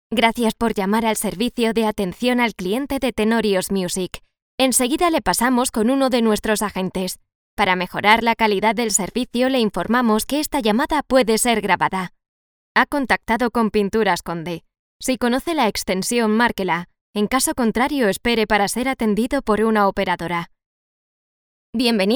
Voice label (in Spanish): Centralita; LOFT VOICES